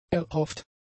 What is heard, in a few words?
"erhofft", German; adjectives